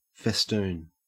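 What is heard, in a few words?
"festoon", Australian English; pronunciations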